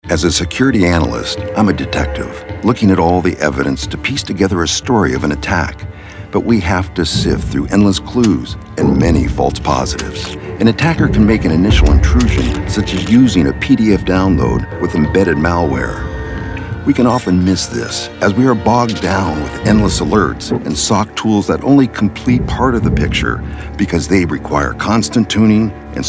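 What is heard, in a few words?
Corporate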